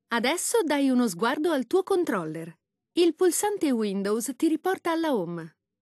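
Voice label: windows button, Italian (Italy); retaildemo; Mixed Reality Learning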